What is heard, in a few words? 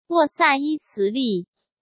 sent zh-CN